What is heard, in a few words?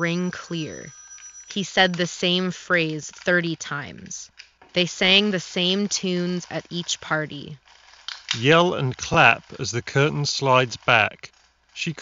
Steelseries Arctis 7P Wireless Office microphone sample